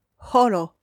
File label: "horo", esperanto; vortoj